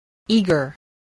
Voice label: "eager", English